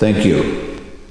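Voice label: "thank you", male voice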